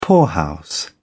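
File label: "poorhouse", British English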